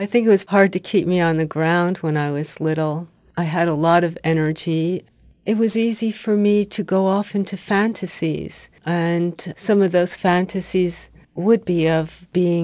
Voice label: GIRL; Sacred and Secular